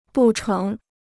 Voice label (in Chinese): "不成", Chinese